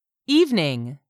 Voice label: "evening", English; 3000 words